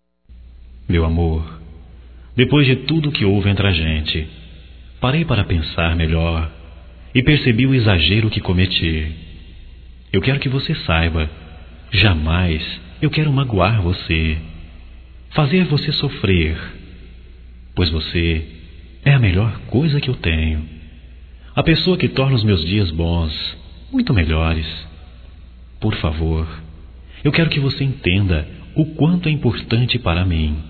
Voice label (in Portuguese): DESCULPAS ROMANTICA, Românticas, masc